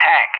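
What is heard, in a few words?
"tank", English; JTAC; Target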